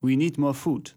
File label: german voice; wyrmsun